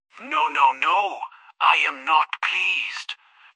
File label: upset; fireball; fertig classic games